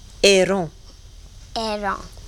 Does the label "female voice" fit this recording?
No